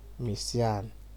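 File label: "misyarn", Nigerian Pidgin, male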